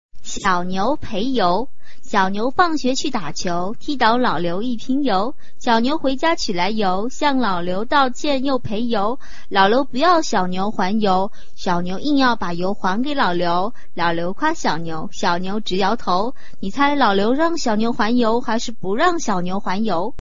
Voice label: chinese tongue twister